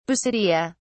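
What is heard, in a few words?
"basidia", British English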